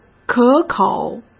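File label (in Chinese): "可口", Mandarin Chinese; hsk; chinese-flashcards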